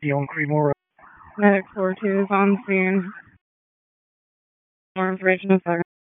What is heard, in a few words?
PS TAC, p25; wake